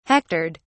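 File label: "hectored", British English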